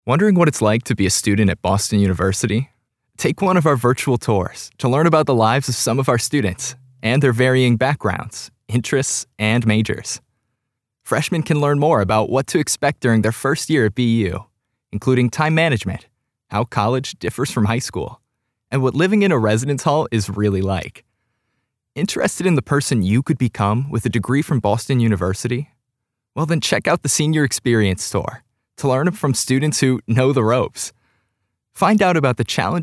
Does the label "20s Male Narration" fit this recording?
Yes